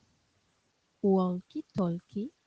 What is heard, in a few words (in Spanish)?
"walkie-talkie", espanol